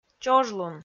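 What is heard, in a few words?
"чожлун", Komi, female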